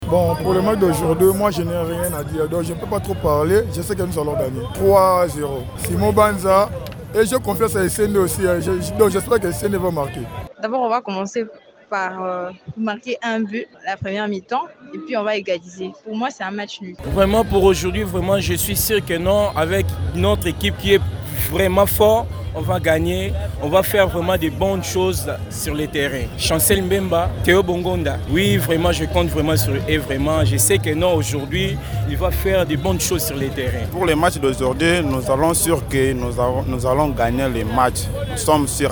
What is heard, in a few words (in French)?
vox pop; match senegal vs rdc